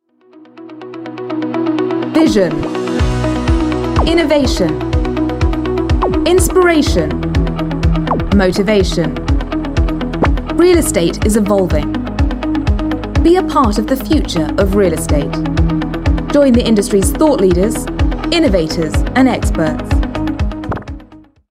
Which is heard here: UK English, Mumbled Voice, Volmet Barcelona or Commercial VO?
UK English